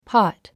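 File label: "pot", English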